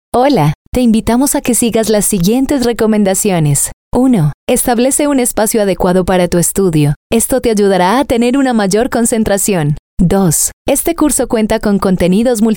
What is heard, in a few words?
spanish voice over female elearning